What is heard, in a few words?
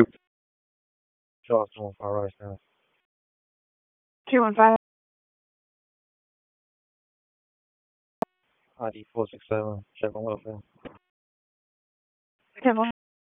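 Police Dispatch, p25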